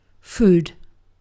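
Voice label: "food", British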